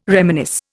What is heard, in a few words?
"reminisce", Indian